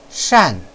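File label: "shan4", Chinese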